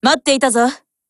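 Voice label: HELLO Japanese; GF Alarm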